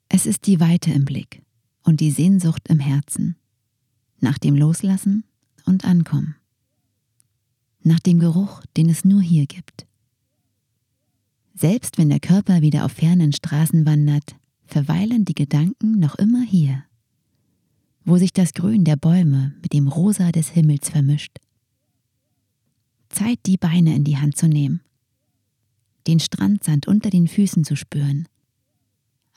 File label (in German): Imagefilm - Urlaub, Sprecherin; Usedom